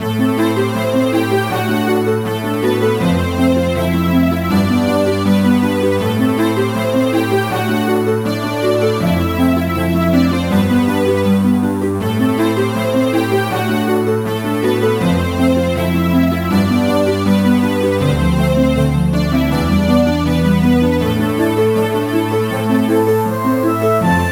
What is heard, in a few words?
Mysterious Voice Recording